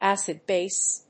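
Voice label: "acid-base", English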